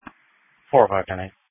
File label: Sheriff Dispatch